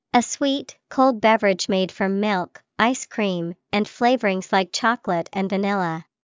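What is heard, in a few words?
milk shake, English vocabulary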